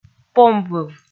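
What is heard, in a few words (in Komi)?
"помвыв", Komi, female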